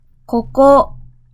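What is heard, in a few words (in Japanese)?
"ここ", Japanese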